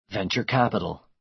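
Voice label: "venture capital", American English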